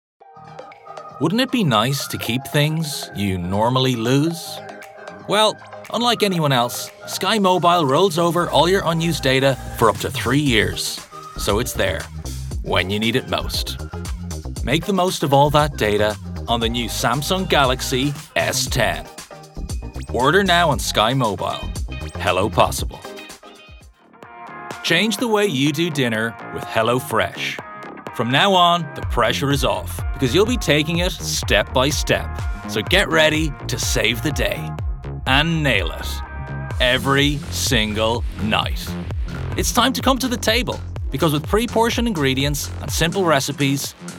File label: male english irish neutral irish accent commercial; irish southern